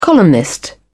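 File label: "columnist", British English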